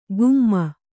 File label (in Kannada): "ಗುಮ್ಮ", Kannada